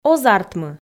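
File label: "ог артмы", Komi, female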